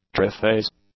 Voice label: "triphase", English; adjectives